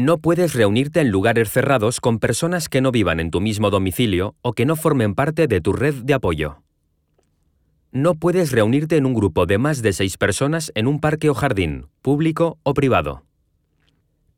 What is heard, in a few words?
SPANISH Covid London Alert Very High